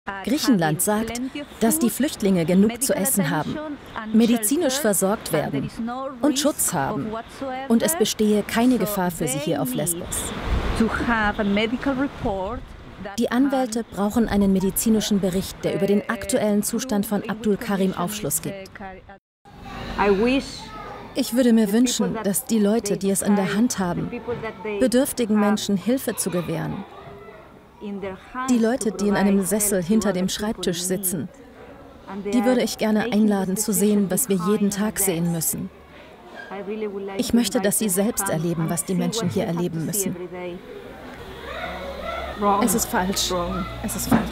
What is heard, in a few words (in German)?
Dokumentar Voiceover